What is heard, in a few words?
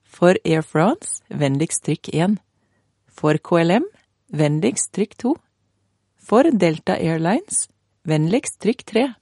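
Norwegian, female, interactive voice response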